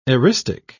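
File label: "eristic", British English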